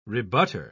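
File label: "rebutter", British English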